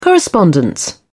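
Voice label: "correspondence", British English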